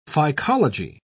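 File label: "phycology", British English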